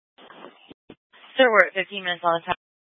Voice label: Fire Departments VHF 154.800 Patch, Mesa Simulcast; TOPAZ